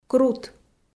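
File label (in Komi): "крут", Komi-Zyrian, female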